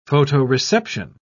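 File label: "photoreception", British English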